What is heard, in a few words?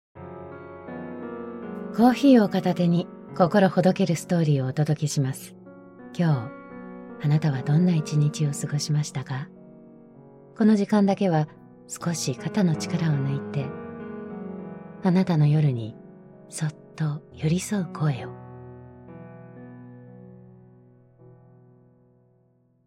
japanese podcasts